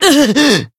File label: damaged, jp; dunarith